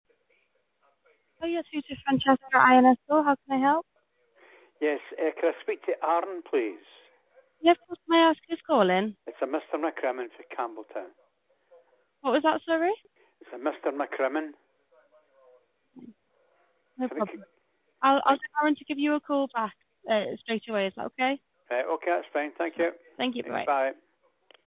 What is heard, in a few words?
call recording